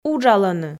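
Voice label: "уджалӧны" Komi, female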